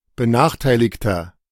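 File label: "benachteiligter", German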